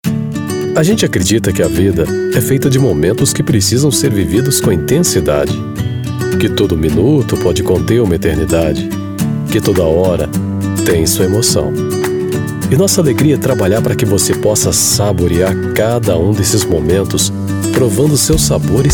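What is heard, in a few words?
br corporate, Brazilian Portuguese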